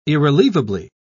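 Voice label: "irrelievable", British English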